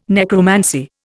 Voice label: "necromancy", Indian